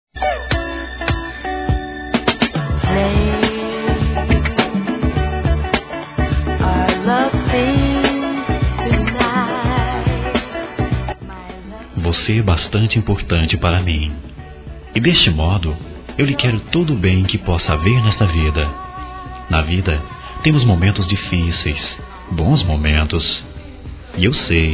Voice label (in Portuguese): Pense em mim Masculino, Telemensagens